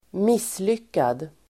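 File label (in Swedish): "misslyckad", Swedish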